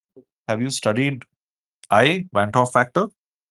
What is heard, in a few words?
voice message